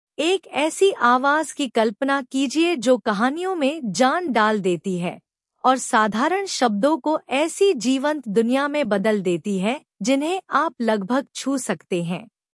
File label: hi-in; swara neural